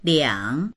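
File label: "liang3", Mandarin Chinese; 两; 二つ（数量を表す、序数の場合は“二 èr”）; dictionary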